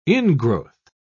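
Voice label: "ingrowth", British English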